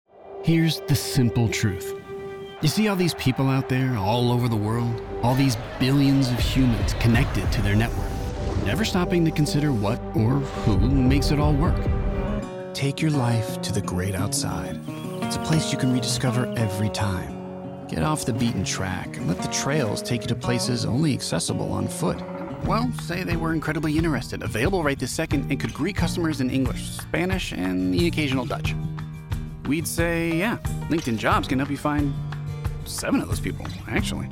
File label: Commercial, en-GB